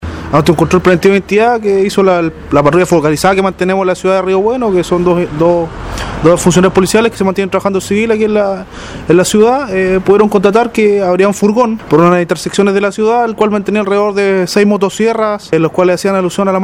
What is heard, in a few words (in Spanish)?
cuña subteniente robo